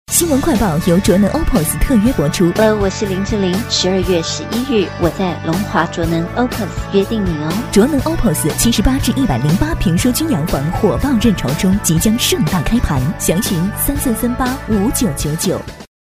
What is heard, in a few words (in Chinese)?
女 新闻播报 新闻快报; 纪实记录